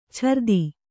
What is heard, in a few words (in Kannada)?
"ಛುರಿತ", Kannada